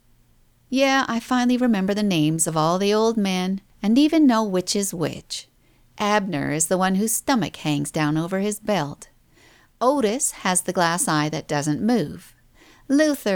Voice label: teen girls snark